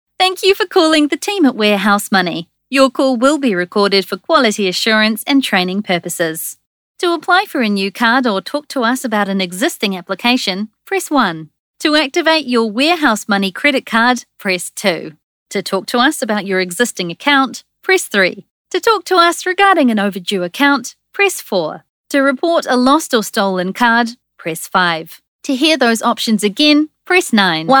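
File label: New Zealand Phone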